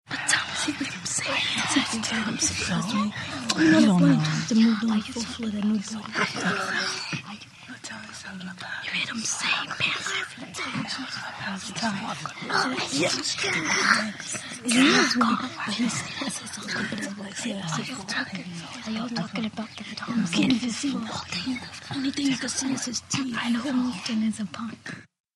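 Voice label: Kids Whisper Very Close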